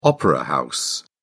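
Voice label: "opera house", British English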